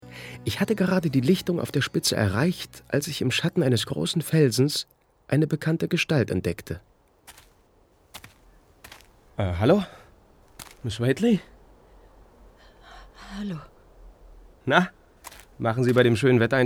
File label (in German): hoerspiel; dunwich